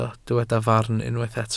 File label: "farn", welsh